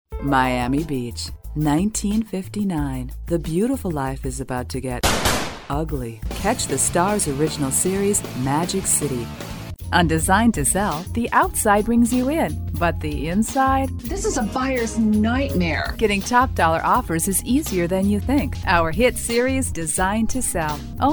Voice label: TV Promo

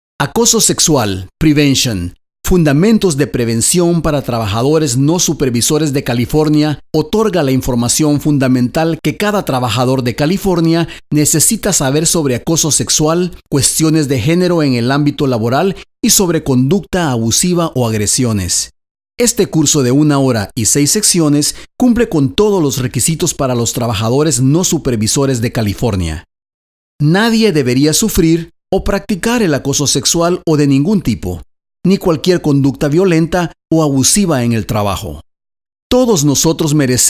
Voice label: SPANISH; Acoso Sexual

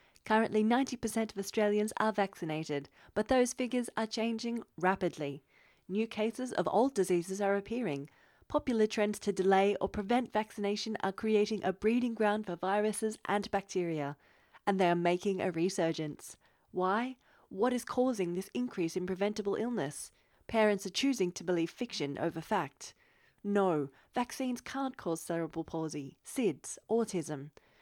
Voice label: Serious direct Style of delivery

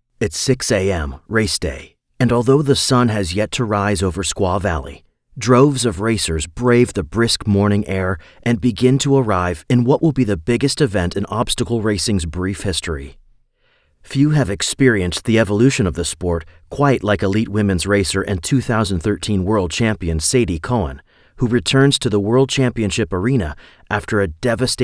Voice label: Documentary Recap

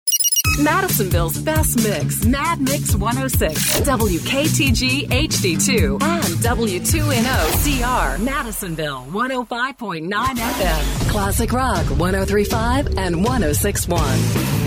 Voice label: imaging

